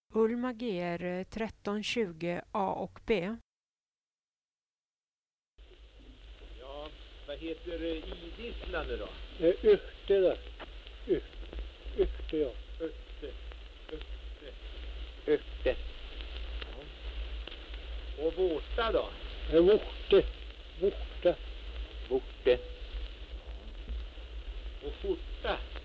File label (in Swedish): Hede, dialekter, sagor; Folkminnen